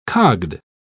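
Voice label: "cogged", British English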